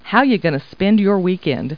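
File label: woman; Talking Clip Words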